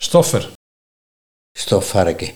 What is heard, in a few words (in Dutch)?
"Stofvarke", Nieuw Lekkerlands dialect; stoffer